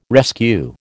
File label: "rescue", American